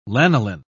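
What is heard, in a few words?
"lanoline", British English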